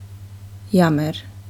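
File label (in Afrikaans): "jammer", Afrikaans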